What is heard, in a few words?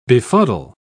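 "befuddle", en, uk British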